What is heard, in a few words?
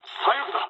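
Japanese left wing mood low; War Thunder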